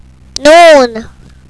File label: "non", Arabic